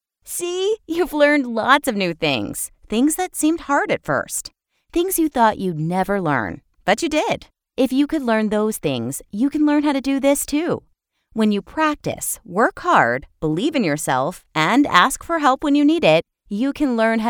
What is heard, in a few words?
K-12 narration